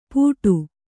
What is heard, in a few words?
"ಪೂಟು", Kannada